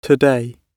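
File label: "today", British English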